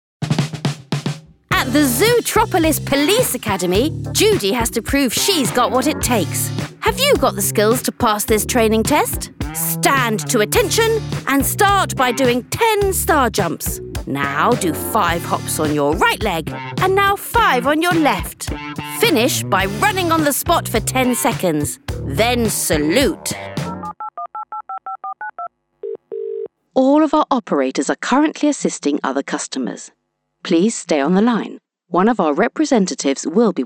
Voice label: female english corporate compilation